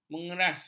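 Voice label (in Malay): "mengeras", Malay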